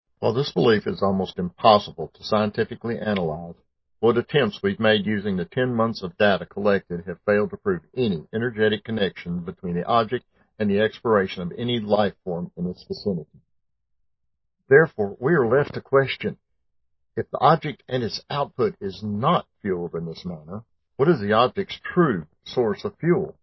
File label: Audio Drama; 'The Sojourn` Audio Drama'; Polmann